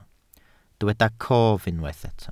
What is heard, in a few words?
"cof", Welsh